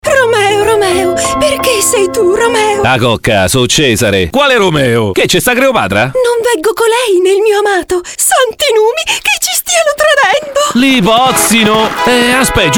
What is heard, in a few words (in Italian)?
Spot caratterizzazione